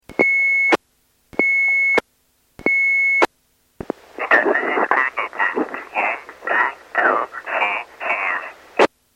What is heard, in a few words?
voice scrambler